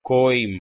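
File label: "koim", Proto-Indo-European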